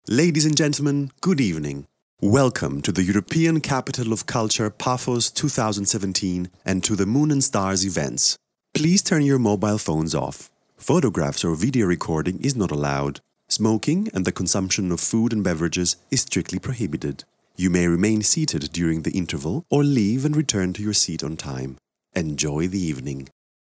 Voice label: English